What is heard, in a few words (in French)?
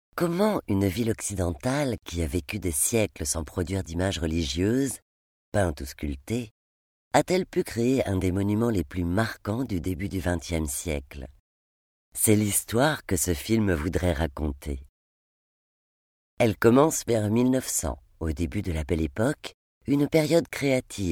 Narration; Mur des reformateurs